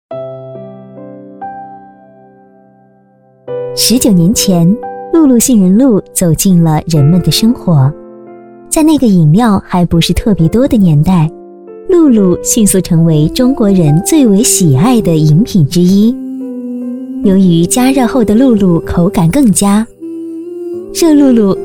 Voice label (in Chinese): 女 专题 解说 知性 温暖; 解说